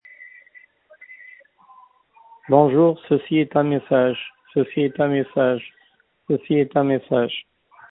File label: voicemail